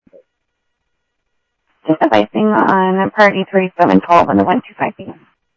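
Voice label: Sheriff East County, scanner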